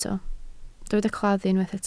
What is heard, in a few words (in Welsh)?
"claddu" Welsh